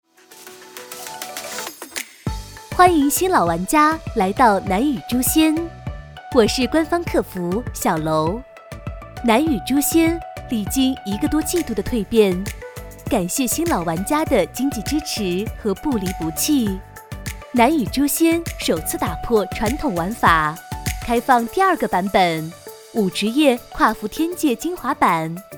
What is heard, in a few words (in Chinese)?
女 游戏语音 游戏客服; 诛仙